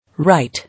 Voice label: "Right", English

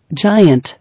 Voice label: "Giant", English

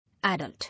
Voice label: "Adult", English

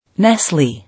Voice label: "Nestle", English

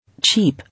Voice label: "Cheap", English

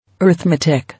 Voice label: "Arithmetic", English

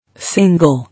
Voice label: "Single", English

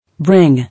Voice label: "Bring", English

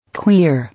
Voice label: "Queer", English

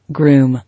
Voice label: "Groom", English

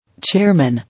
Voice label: "Chairman", English

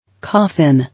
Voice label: "Coffin", English

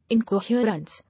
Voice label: "Incoherence", English

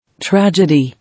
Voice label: "Tragedy", English

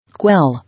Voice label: "Quell", English

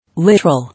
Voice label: "Literal", English

